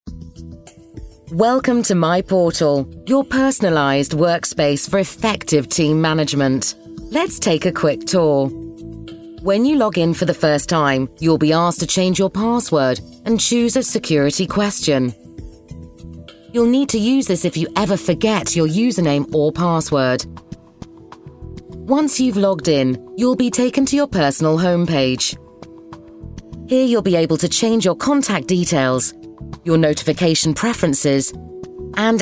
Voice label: Voice Talent eLearning; MyPortal